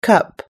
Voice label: "cup", us english